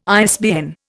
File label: "ISBN", Indian